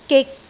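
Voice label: "gik6", Cantonese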